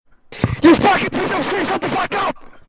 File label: angry